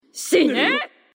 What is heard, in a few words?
shine female; for honor orochi